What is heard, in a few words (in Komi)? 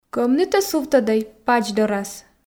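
кӧмнытӧ сувтӧдӧй пач дорас, Komi, female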